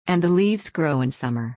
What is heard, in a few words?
fast